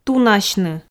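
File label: "тунасьны", Komi, female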